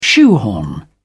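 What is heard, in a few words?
"shoehorn", British English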